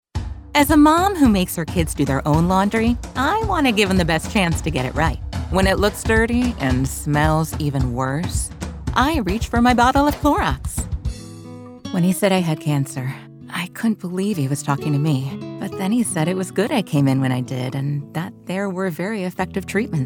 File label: Commercial Voiceover; Female